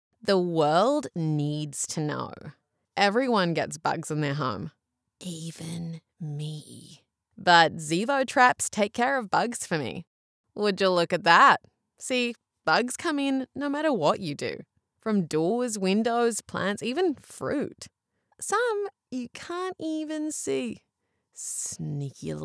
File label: english australian impersonations